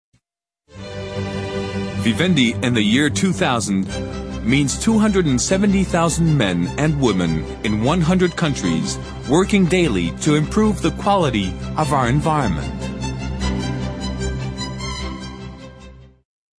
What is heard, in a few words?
Voice Over; Vivendi